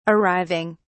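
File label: "arriving", British English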